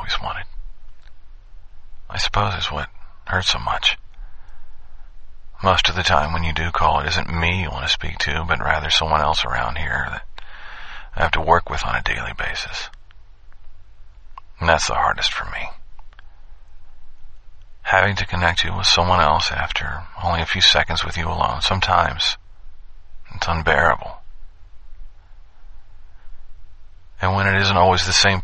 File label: Sad Answering Machine